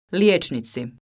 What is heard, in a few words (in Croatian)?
"Lijecnici", croatian